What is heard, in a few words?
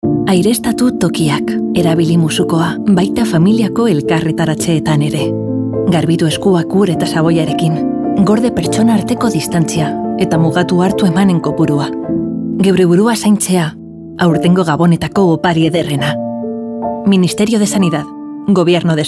Radio; El Mejor Regalo Es Cuidarnos; MIN SANIDAD Navidad Radio Eusk